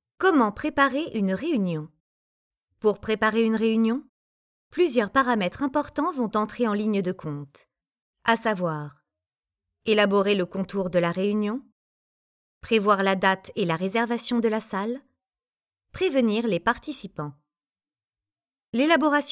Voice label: E-LEARNING Meeting; voice-talent